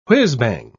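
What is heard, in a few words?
"whizzbang", British English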